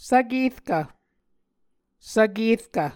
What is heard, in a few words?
"svkerkv", Muscogee